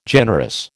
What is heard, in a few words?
"generous", American